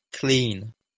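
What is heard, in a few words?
"klin", Proto-Indo-European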